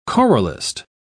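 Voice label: "choralist", British English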